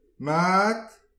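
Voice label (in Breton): "mat", Breton; petit precis de prononciation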